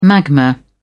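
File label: "magma", British English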